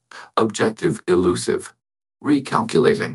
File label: upset; fertig classic games; cybro